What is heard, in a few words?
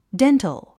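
"dental", English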